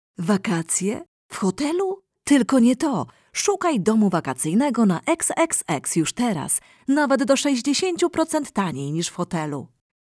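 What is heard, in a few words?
PO F commercial, Polish, female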